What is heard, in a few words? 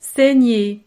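"saigner", French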